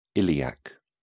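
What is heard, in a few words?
"iliac", English, British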